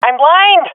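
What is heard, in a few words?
blinded, swat female; vo; Counter Strike Model Pack; CS:GO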